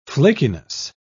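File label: "flakiness", British English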